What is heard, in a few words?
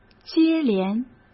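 "接连", Mandarin Chinese; hsk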